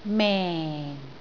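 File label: "meng4", Cantonese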